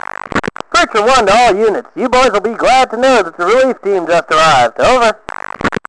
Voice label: chat